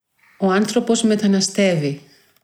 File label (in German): griechisch